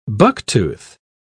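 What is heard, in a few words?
"bucktooth", English, British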